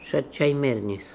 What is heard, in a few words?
"Shat Chai Mernis", pronounciations; Fourth Way; Beelzebub